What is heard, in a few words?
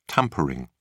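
"tampering", British English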